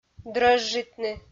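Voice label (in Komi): "дрӧжжитны", Komi, female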